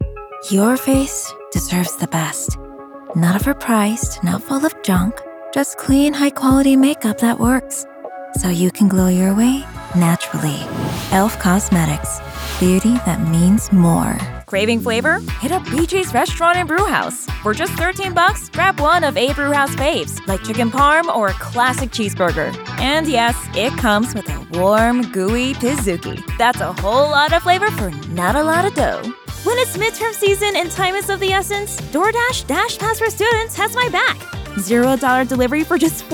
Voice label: Commercial